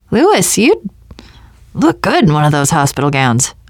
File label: world hospital, teengirl, voice; player; survivor